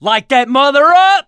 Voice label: battle cry tank, mechanic, survivor, voice